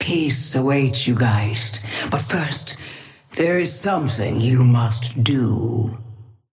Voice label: female ally start; Patron; ghost oathkeeper